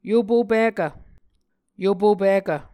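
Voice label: "yopo pikv", Muscogee words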